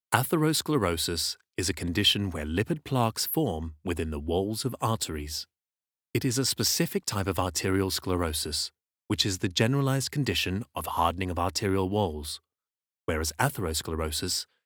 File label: english british medical narration